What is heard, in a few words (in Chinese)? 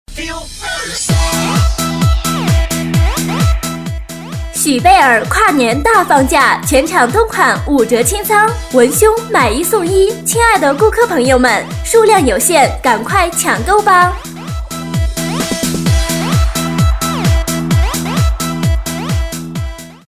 促销; 许贝儿跨年大放价